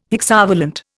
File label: "hexavalent", Indian